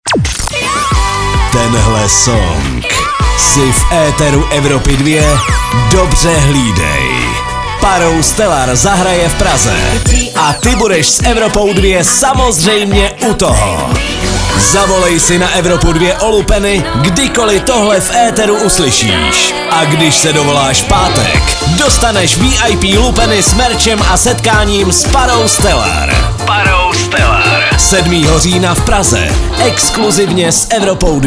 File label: promo; evropa2